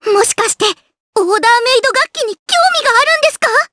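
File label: vox dia Japanese; Rehartna; kingsraid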